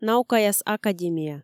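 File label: "наукаяс академия", Komi, female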